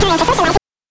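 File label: "Time", voice; NES DPCM; MIDINES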